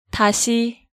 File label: "Dasi", Hangeul sounds; DigitalSoju